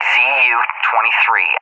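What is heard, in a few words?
"ZU-23", English, USA; AirDefence; DCS; Allied Flight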